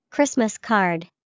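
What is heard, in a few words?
Christmas card, English vocabulary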